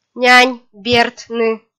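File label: "нянь бертны", Komi, female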